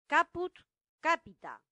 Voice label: "caput capita", latin; santillana